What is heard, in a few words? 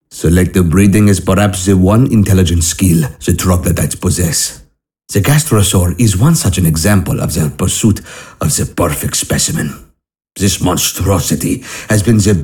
Narration; Gastrosaur